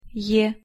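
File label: "е", Russian pronunciation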